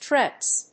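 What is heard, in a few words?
"rtts", English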